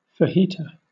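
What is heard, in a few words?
"fajita", British English; pronunciations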